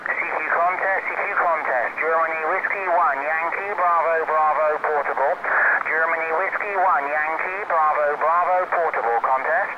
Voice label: CQ live call